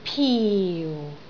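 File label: "piu4", Cantonese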